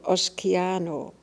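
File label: "Oskiano", pronunciations; Fourth Way; Beelzebub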